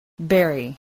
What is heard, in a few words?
"bury", spelling